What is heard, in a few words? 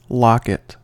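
"locket", US English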